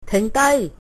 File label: stop, Cantonese